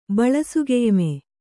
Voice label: "ಬಳಸುಗೆಯ್ಮೆ", kannada

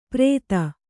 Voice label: "ಪರೇತ", Kannada

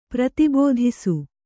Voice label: "ಪ್ರತಿಬೋಧಿಸು", Kannada

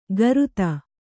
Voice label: "ಗರುತ", Kannada

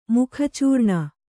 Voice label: "ಮುಖಚೂರ್ಣ", Kannada

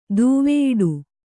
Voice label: "ದೂವೆಯಿಡು", kannada

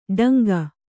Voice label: "ಡಂಗ", Kannada

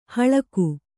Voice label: "ಹಲ್ಕ", Kannada

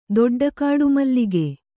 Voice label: "ದೊಡ್ಡಕಾಡುಮಲ್ಲಿಗೆ", kannada

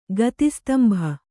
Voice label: "ಗತಿಸ್ತಂಭ", Kannada

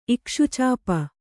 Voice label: "ಇಕ್ಷುಚಾಪ", Kannada

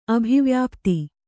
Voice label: "ಅಭಿವ್ಯಾಪ್ತಿ", Kannada

